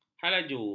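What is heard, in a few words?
"halaju", Malay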